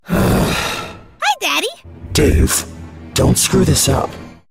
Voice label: mom exasperated tired frustrated devil hell gravitas intimidating giant